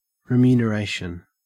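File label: "remuneration", Australian English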